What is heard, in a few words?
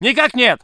voice sov infantry